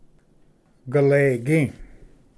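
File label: "galegi", Cherokee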